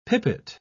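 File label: "pipit", British English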